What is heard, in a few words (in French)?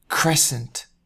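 "croissant", French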